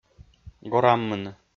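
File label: "гораммыны", Komi, male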